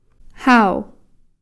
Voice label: "hau", yes